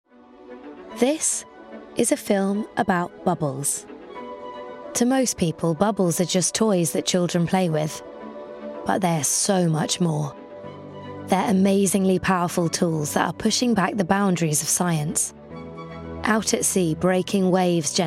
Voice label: Documentary Narration